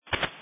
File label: Police Dispatch